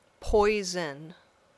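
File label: "poison", English word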